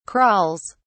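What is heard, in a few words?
"crawls", English, British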